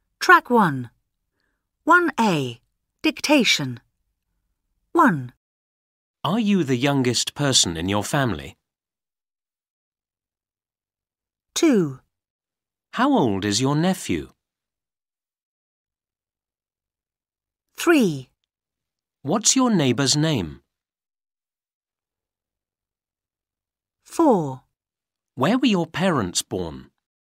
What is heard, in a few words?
dictation